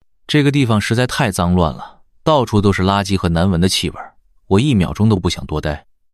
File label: Disgusted; MiniMax Speech